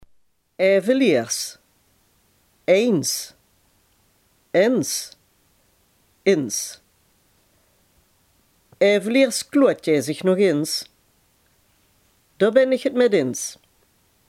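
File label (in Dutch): "eens" Limburgish dialect word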